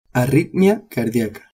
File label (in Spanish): "arritmia" spanish